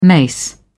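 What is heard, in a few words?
"mace", British English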